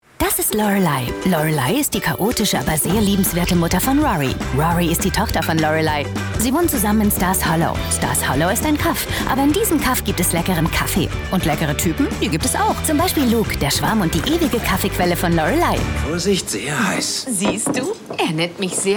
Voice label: Trailer; GilmoreGirls; SIXX